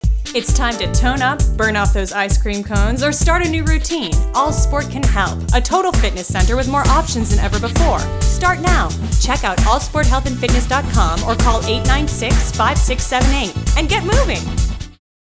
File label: radio; AllSport